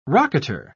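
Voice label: "rocketer", British English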